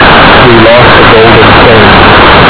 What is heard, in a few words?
speech filtered; digital signal proc